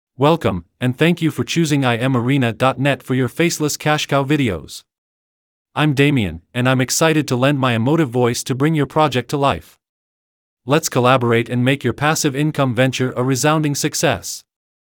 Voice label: English US Male